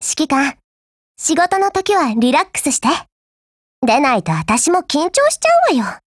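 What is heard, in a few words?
DIALOGUE, Japanese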